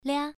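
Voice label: "lia", Mandarin Chinese syllable, first tone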